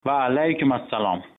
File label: hello uzbek